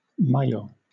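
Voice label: "maillot", British English; pronunciations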